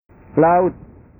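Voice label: "laut", Indonesian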